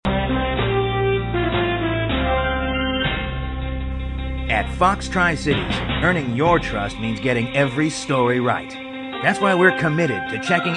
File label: news promo